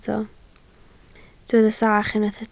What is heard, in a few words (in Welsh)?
"sach", Welsh